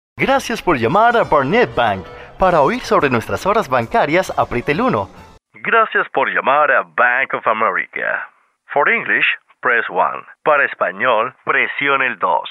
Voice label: IVR On Hold Span